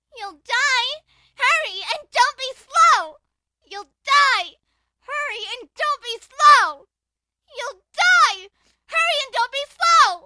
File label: Evil Childish Anime You'll Die Hurry And Don't Be Slow